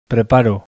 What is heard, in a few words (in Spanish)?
acento lexical preparo, bizkaia masculino; spansk; fonetica